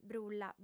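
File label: "brulla", female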